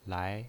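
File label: "lai", Mandarin Chinese, second tone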